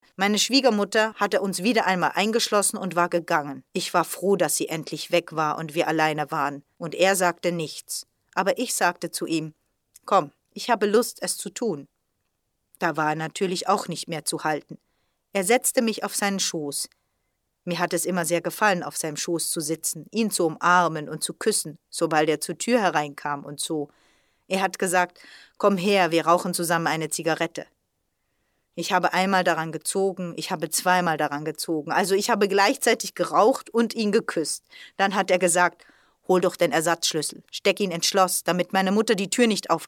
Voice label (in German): podcast; Orlanda Verlag; So ist das meine Schoene